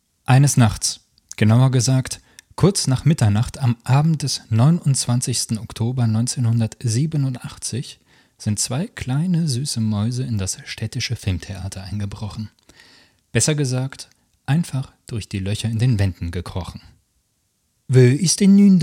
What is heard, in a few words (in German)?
Hoerbuch; Die Zwei Ratten